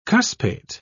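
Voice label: "cuspate", British English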